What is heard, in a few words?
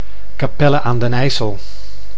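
"Capelle aan den IJssel", Dutch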